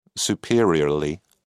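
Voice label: "superiorly", English, British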